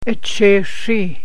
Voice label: "March", Gwich'in; Echee srii'